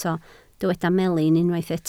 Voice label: "melin", Welsh